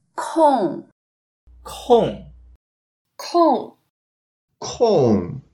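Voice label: "kong4", Mandarin Chinese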